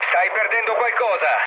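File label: Italian tank damaged mood low, speech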